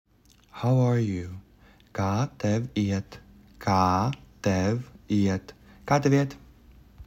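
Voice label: How are you, Latvian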